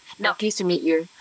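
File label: voice acting, es, female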